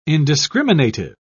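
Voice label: "indiscriminative", British English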